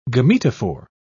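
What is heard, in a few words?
"gametophore", English, British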